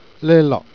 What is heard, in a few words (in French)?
"lac", Jèrriais